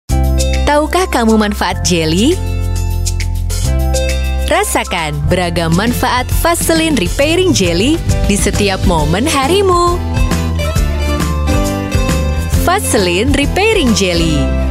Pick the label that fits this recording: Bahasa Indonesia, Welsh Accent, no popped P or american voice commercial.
Bahasa Indonesia